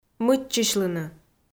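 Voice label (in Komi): "мыччысьлыны", Komi, female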